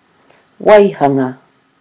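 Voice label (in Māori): "waihanga", Māori word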